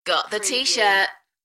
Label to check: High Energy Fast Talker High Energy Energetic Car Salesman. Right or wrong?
Wrong